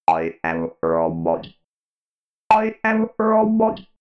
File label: robot voice i am robot